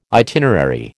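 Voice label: "itinerary", American English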